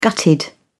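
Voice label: "gutted", British English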